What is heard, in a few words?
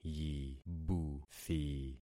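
"yibuthi", concatenated syllables; Affixing experiment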